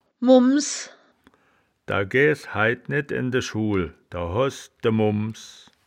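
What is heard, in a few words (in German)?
"mumms", wittlicher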